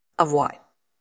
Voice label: Voice Acting, es